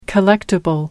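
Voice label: "collectible", British English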